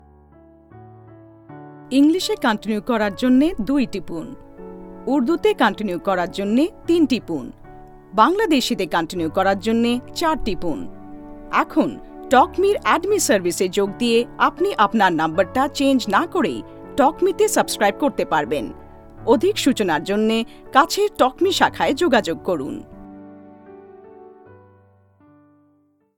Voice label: IVR, BENGALI

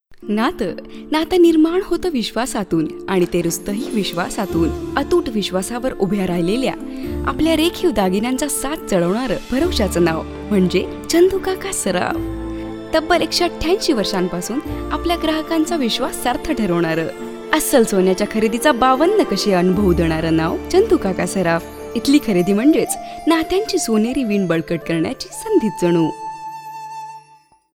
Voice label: Advertisement marathi

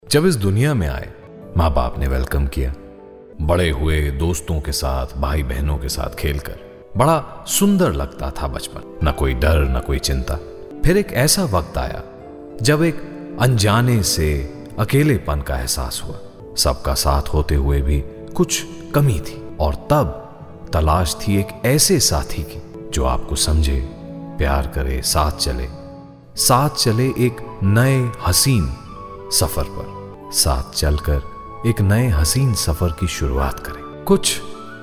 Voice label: narration, Hindi

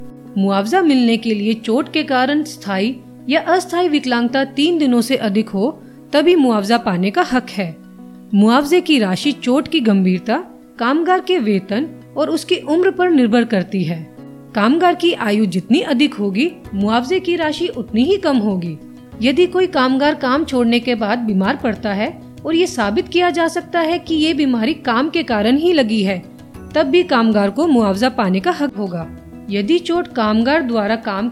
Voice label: safety video hindi

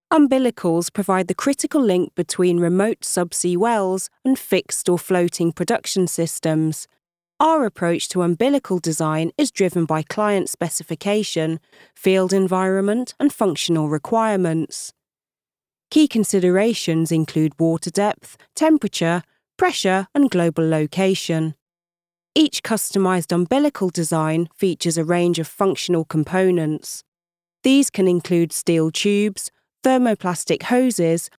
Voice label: narration

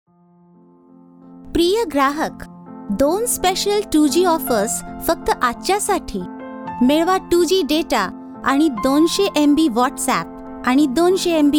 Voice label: IVR marathi

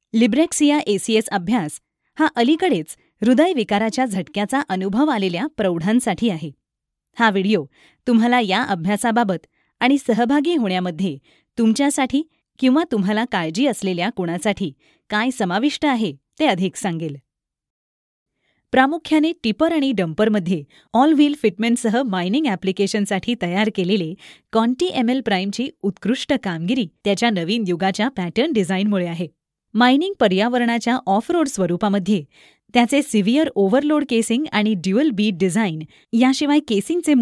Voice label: Marathi

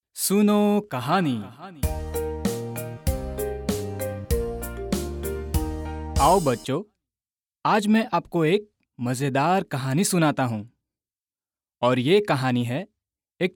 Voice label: STORY hindi

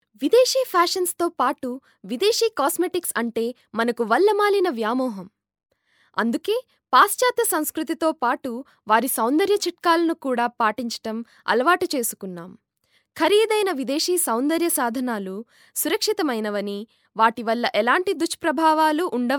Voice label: narration telugu

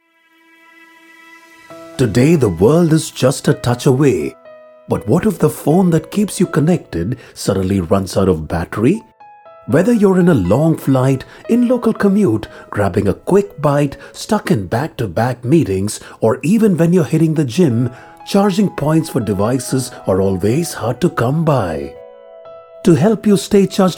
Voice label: Product Promo English; Jiffy

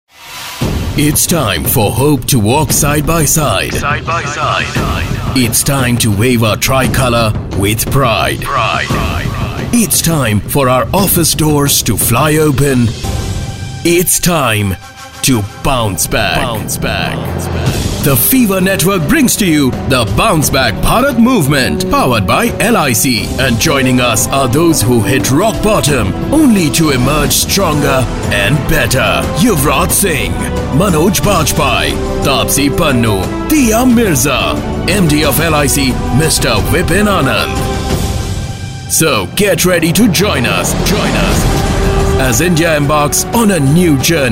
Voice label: radio ENGLISH

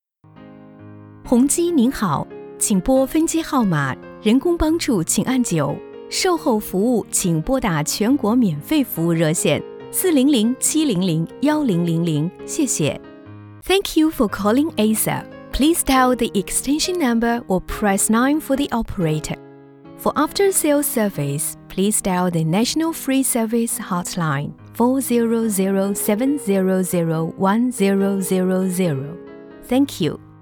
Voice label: ivr

